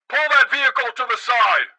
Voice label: police megaphone; Blind Drive